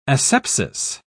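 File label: "asepsis", British English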